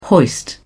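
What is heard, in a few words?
"hoist", British English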